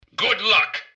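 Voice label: greeting, robot; npc; dialoguecitadel; mrgutsy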